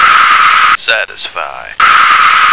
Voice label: "satisfy", American